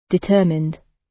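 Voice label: "determined", British; Time, history; Thời gian, lịch sử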